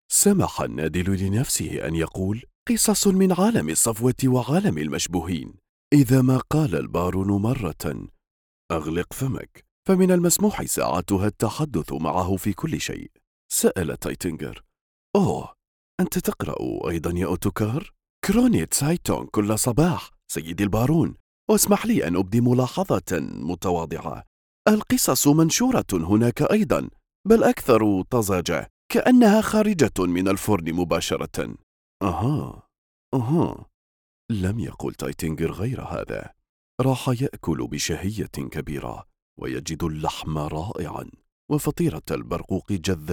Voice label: Arabic novela sample